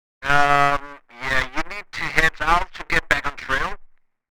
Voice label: CB radio walkie talkie conversation loud distorted